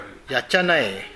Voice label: "yachanae", minamiyamagata

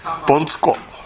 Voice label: "bontuko", minamiyamagata

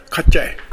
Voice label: "kaccha", minamiyamagata

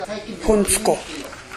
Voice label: "bontuko", minamiyamagata

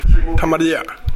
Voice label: "tamariya", minamiyamagata

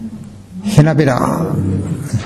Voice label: "henabera", minamiyamagata

